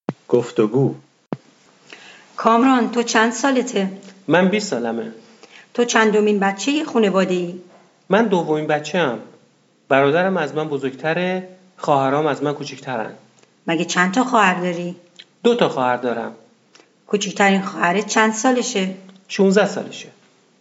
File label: Dialog, persian